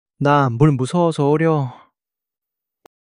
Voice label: fluster